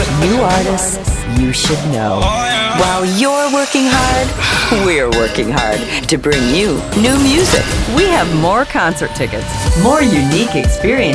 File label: Country VO; Country VO Demo